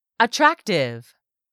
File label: "ATTRACTIVE", english; 3000 words